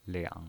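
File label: "liang", pinyin, Mandarin Chinese, third tone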